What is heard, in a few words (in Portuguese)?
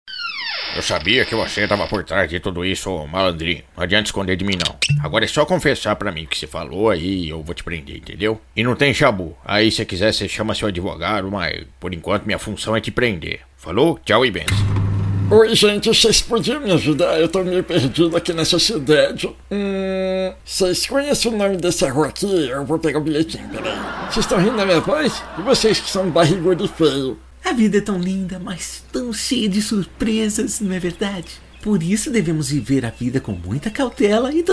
voz caricata